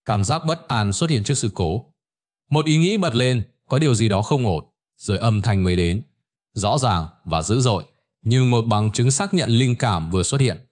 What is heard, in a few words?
narration